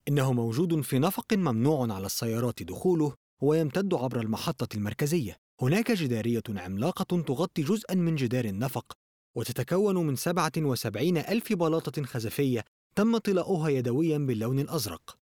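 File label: arabic male narration; voice demos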